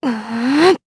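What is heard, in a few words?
Vox Casting, Japanese; Talisha; kingsraid audio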